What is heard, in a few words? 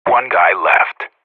radio bot kill count, vo professional